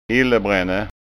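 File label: "illebranne", Scanian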